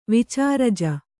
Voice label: "ವಿಚಾರಜ", Kannada